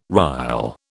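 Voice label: "rille", British English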